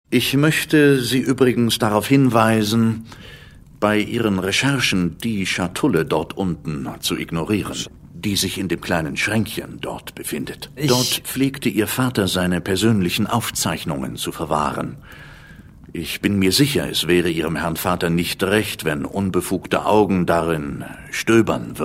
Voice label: Film and TV Dubbing General public, German voice-over, Intriguing and Narrative; Die Earlam Chroniken